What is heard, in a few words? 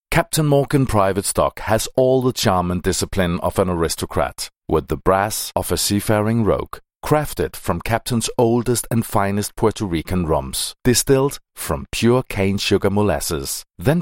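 Danish English M Commercial, male; voice over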